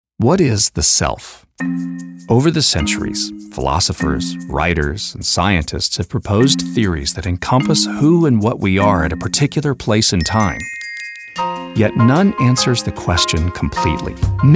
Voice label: eLearning